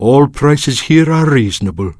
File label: Draenei Male Vendor